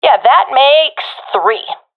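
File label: enemies left three, professional female; vo; Counter Strike Model Pack; CS:GO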